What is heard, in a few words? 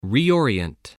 "reorient", English